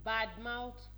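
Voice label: "badmouth", Nigerian Pidgin, female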